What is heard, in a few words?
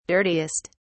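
"dirtiest", British English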